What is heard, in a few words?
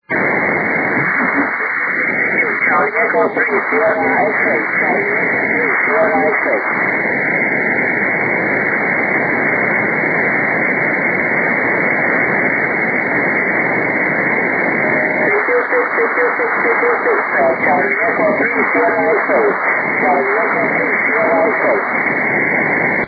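6m single sideband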